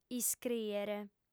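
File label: "iscrìere", Sardinian, female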